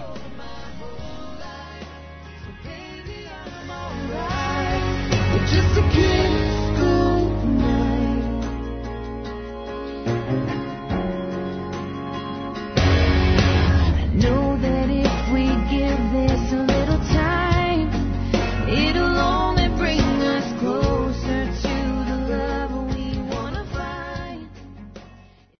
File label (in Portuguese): Dia das Mães Desculpas Para Mãe Masculino, Telemensagens; Telemensagens 2017